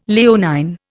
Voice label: "Leonine", Indian